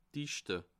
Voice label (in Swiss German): "dichte", alsace